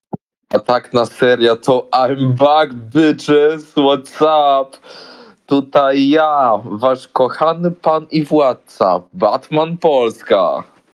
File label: voice message